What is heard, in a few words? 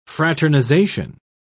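"fraternisation", English, British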